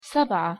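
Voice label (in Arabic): "سبعة", Arabic pronunciation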